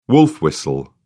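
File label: "wolf-whistle", British English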